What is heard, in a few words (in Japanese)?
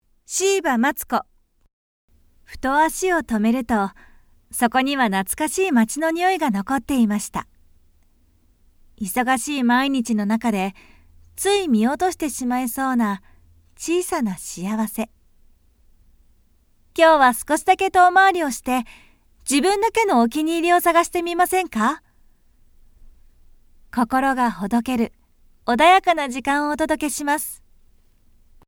地声ナチュラルナレーション; 名乗り＋地声ナチュラルナレーション